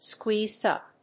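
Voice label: "squeeze-up", English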